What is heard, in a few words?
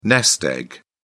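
"nest egg", British English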